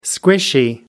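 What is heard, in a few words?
"squishy", British English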